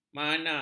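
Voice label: "mana", Malay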